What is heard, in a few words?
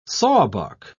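"sawbuck", English, British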